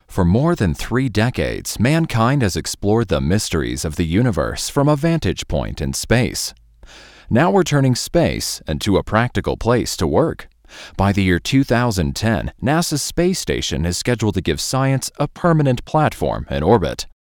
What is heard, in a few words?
DeEss compressor internal sidechain